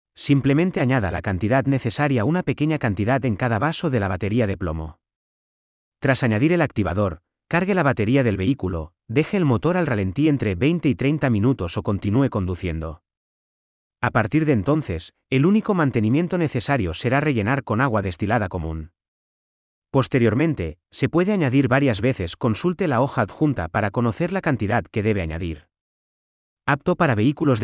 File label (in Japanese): ナレーター スペイン語